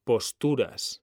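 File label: "posturas", Spanish, male; mekanta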